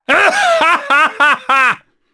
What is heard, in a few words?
Vox Happy, jp; kingsraid; voices heroes jp